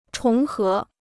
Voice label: "重合", Chinese